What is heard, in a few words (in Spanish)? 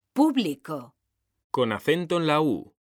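"PÚBLICO" Spanish, female; mekanta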